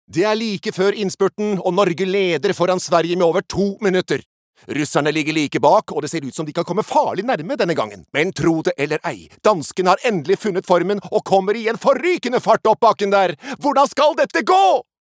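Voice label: Norwegian dub commentator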